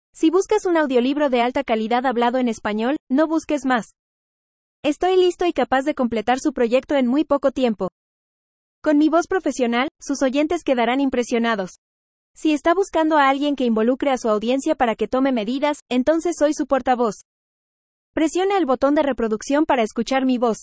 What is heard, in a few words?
lady, audiobooks; ebook to audiobook